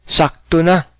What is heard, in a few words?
stop, cebuano